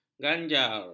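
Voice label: "ganjar", Malay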